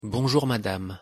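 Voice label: pronunciation "bonjour madame", French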